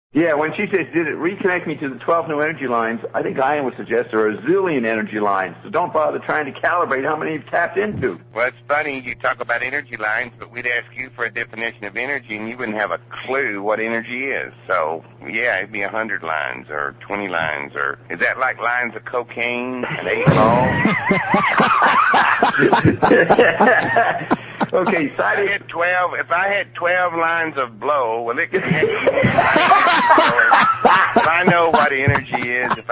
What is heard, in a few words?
rant